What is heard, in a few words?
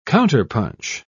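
"counterpunch", British English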